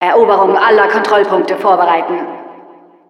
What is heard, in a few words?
Announcer capture controlpoints, de